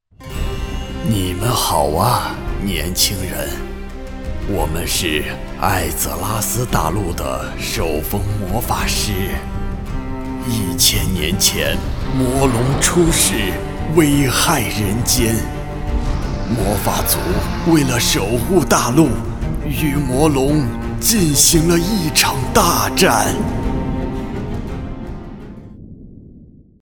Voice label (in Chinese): 角色老人